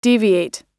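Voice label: "deviate", American English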